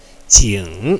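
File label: "jing3", Mandarin Chinese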